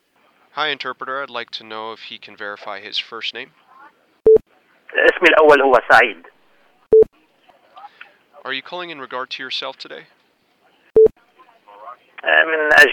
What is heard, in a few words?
Insurance Medical Coverage Question Arabic without interpretation